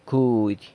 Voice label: "cudy", San Lucas Quiavini; thigh